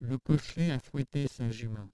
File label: female Trans LPC